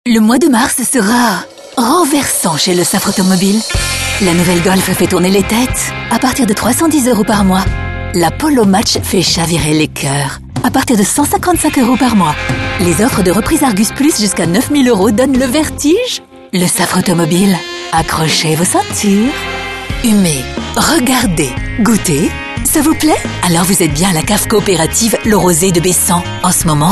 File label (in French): femme